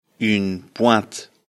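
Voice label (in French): "pointe", French; afrikalan; lecture fr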